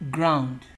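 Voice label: "ground", Nigerian Pidgin, female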